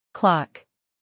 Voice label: "clock", English